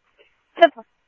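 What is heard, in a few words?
Mesa Simulcast Police Department; MESA PD Superstn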